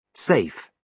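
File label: "safe", British English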